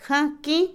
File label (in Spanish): Otomí, mujer; nata; Comida; INALI